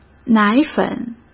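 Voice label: "奶粉", Mandarin Chinese; hsk; chinese-flashcards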